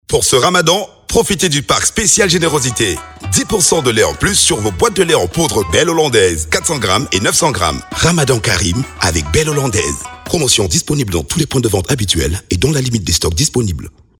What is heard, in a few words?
spot radio promo; mali